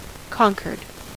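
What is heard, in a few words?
"conquered", American English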